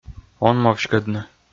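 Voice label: "онмовськӧдны", Komi, male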